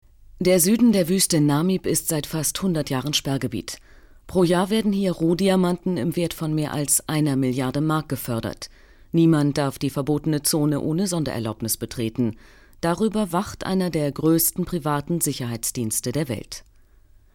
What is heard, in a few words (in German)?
kommentar